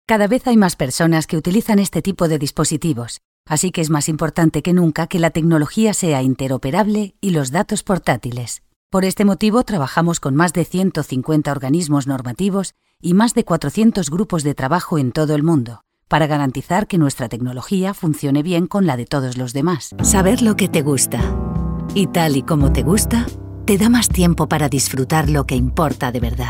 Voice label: voz femenina media

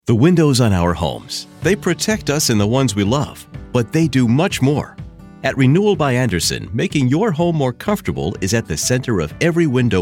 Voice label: Ingles USA Canada Voz masculina media

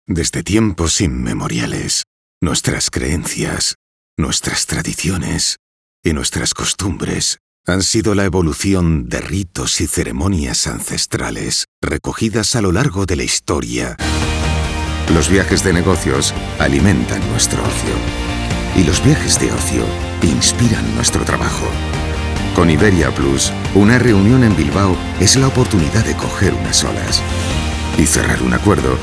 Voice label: voz masculina grave

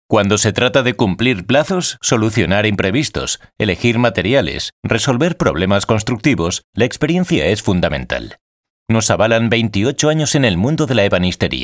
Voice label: Voz masculina media

